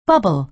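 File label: "bubble", American English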